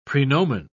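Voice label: "praenomen", English, British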